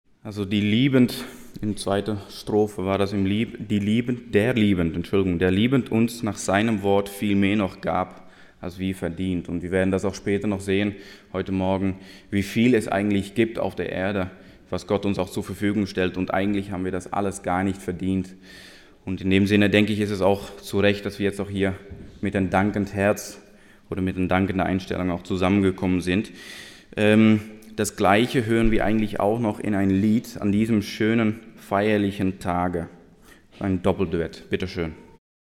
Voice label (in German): Kommentar